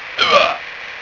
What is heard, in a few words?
Hooah!